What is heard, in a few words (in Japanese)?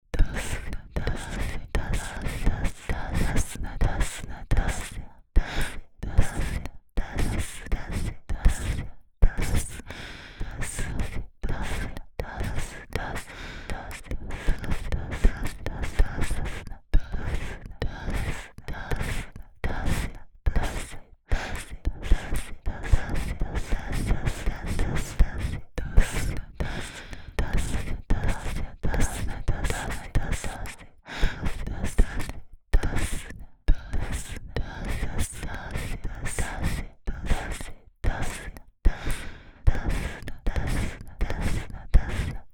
囁き連呼 出せ×出すな